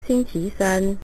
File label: wednesday, mandarin